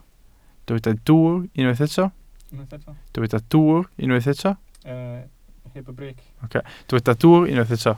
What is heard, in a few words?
"dwwr", Welsh